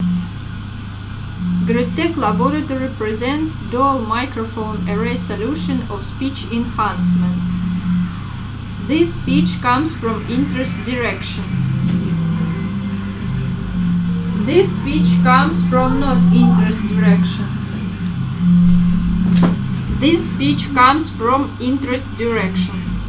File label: mic; GritTec Combo; Speech Enhancer